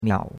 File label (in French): "miao3", chinois; Cours de Chinois